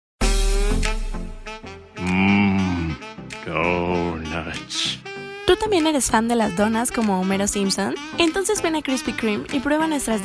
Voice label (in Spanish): spot publicitario; krspy kreme